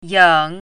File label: "ying3", Chinese pinyin